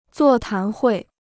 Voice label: "座谈会", Chinese